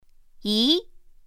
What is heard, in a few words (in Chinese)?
"yí", pinyin; pinyin2; pinyinji